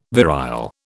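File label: "virile", British English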